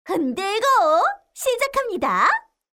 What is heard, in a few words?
shake voice; RandomGFGoStop